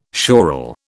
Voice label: "schorl", British